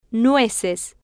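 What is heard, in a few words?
"nueces", Spanish, female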